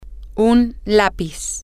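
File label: a Pencil, Spanish, female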